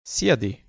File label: "sjedi", Bosnian